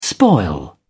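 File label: "spoil", British English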